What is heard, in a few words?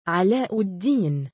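male "3alaa2uddiin", Arabic; 信仰の高み、宗教の崇高さ、信仰・宗教における高い地位・崇高さ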